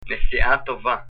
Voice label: bon voyage, hebrew